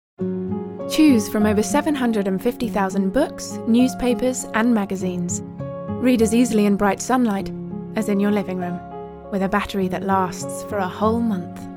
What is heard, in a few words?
Commercial Reel